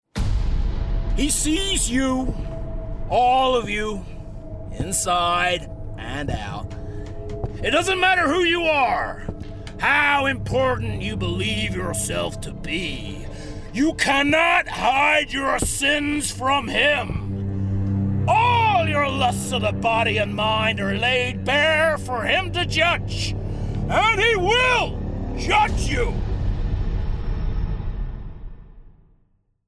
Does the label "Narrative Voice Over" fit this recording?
No